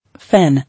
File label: "Fen", English